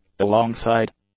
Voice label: "alongside", English; adjectives